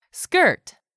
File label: "SKIRT", english; 3000 words